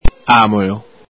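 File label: "amolo", Veneto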